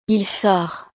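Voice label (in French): "il sort", French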